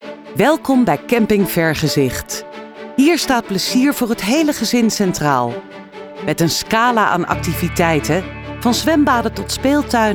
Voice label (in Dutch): Narrative Corporate, voice over